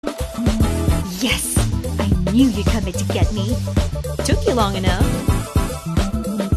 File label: english international impersonations, singaporean english voice actors; Una actriz de voz cálida, amigable y versátil con atractivo global.; Interpretaciones